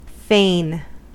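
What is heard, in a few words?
"fain", American English; pronunciations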